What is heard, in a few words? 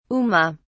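"uma", British English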